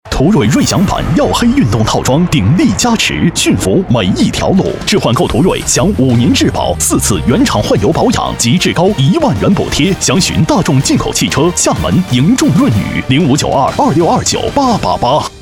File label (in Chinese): 广告